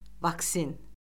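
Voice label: "vaksin", Azerbaijani; Orfoqrafiya Lüğəti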